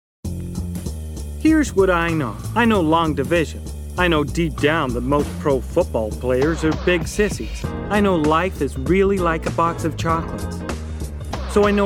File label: Commercial